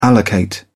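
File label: "allocate", British English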